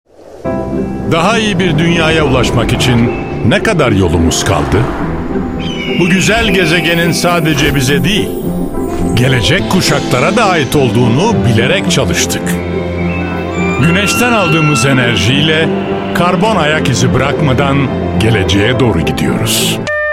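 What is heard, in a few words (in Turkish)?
reklam kurumsal güven veren karizmatik, Turkish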